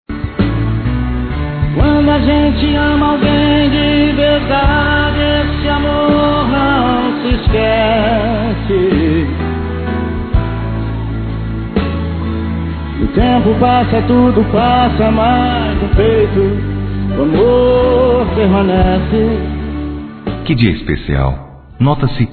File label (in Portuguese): Bodas de Ouro Masculino, Telemensagens; Bodas e Casamento